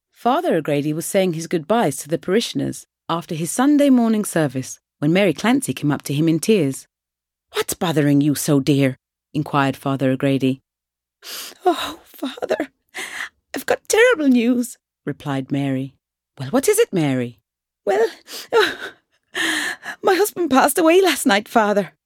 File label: Irish accent Joke; Father Ogrady